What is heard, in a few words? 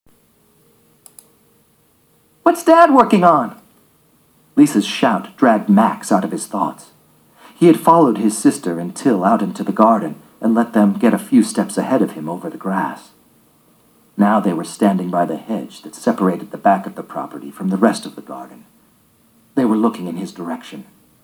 Audiobook; Berlin Gothic